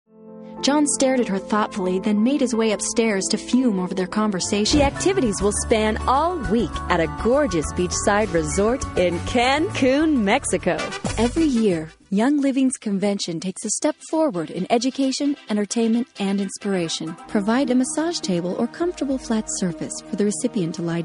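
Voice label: narration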